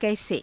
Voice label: "kaise", Hindi; कैसे; [副]どのように